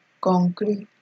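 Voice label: "konkri", Nigerian Pidgin, female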